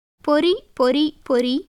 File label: Tamil